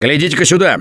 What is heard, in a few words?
look here, russian; Gambler